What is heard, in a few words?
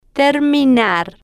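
"terminar", Spanish, female, slow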